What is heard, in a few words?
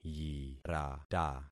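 "yirata", concatenated syllables; Affixing experiment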